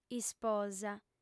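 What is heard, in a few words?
"isposa", female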